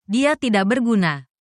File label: indonesian phrases